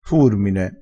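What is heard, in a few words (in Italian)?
"furmine", dialetto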